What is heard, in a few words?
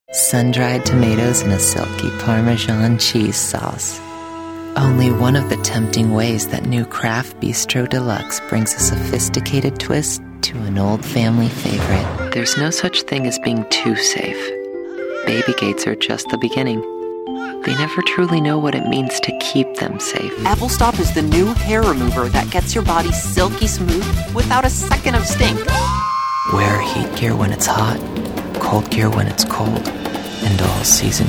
Commercial